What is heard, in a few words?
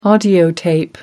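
"audiotape", British English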